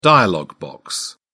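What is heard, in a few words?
"dialog box", British English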